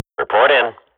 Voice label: radio bot report, Counter-Strike swat; Global Offensive; player vo